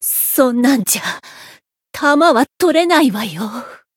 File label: win barely, jp